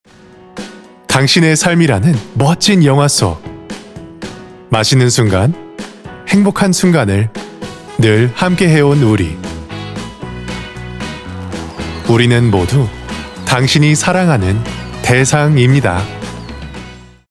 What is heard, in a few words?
Korean narration